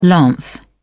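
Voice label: "lance", British English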